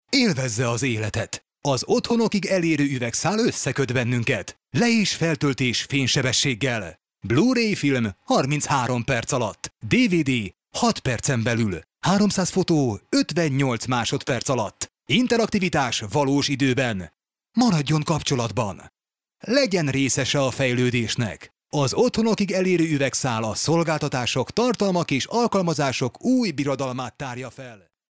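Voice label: Hungarian, male, commercial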